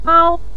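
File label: "miao", Mandarin Chinese neutral tone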